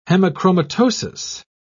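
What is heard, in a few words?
"hemochromatosis", British English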